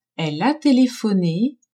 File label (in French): "elle a telephone", french; telephoner